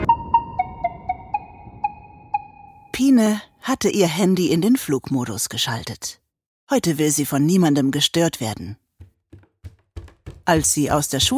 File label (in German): erzahlerin kinderhorspiel; Sprecher in Berlin, Dresden, Leipzig, Meißen; Muttersprache Deutsch, Französisch